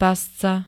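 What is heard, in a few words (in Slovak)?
"pasca", Slovak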